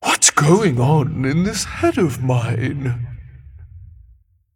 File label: Female Animated Cauldron Play